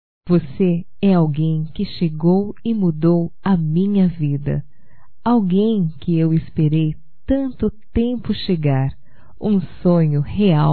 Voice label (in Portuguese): FIM DE NAMORO, Românticas fem